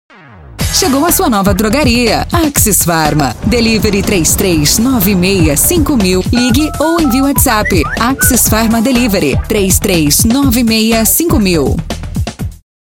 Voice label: spot